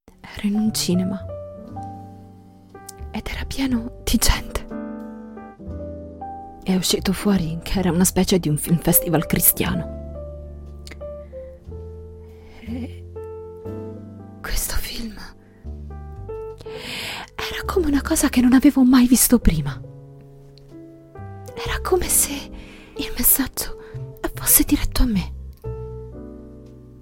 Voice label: Theatrical voiceove

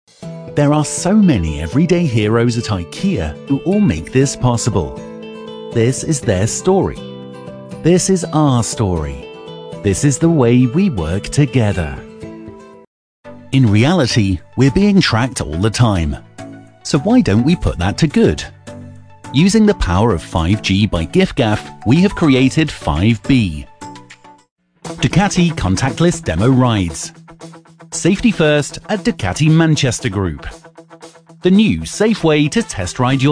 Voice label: English Voice over Education

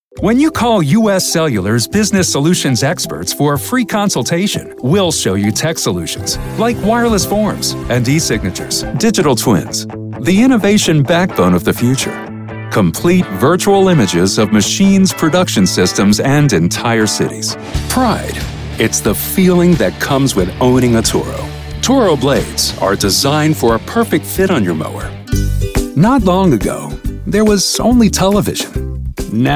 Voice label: American English Voiceover Sample

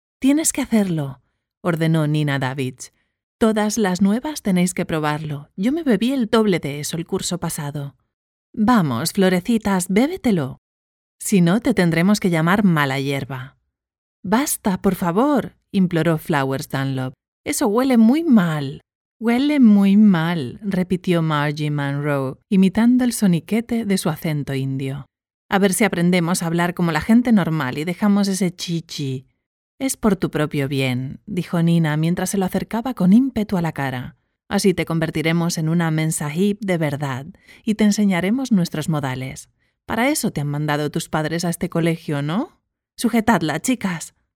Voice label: Audio book

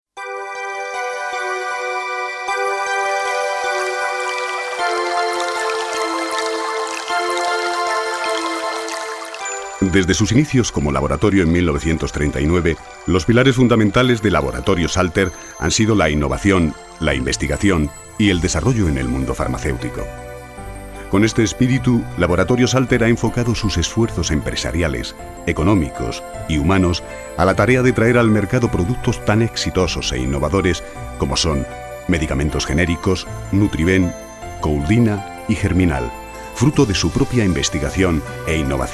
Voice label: corporate promotional film

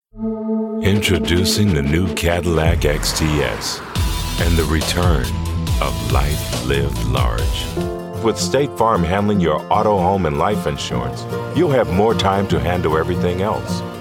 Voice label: Commercial

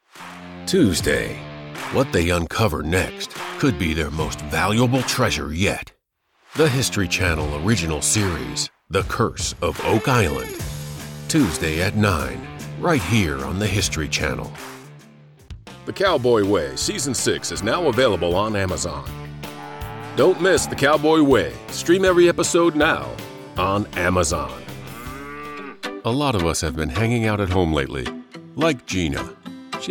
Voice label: TV Radio Commercial

